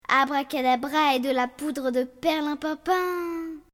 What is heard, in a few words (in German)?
franzoesisch